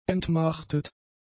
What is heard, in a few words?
"entmachtet", German; adjectives